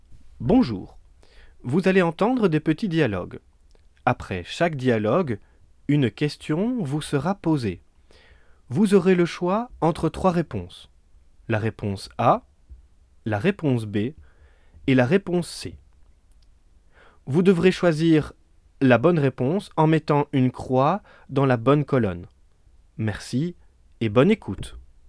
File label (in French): consigne francais; Positionnement-FLE-Bruxelles